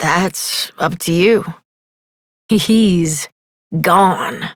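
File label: Adult, English; New War; Epilogue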